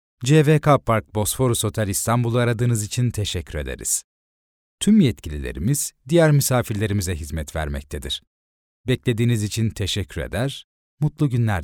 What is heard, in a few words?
turkish ivr